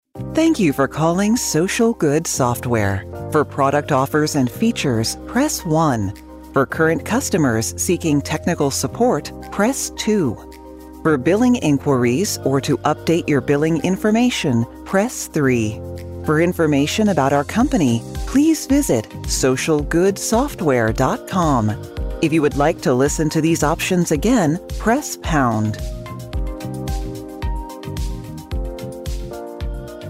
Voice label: ivr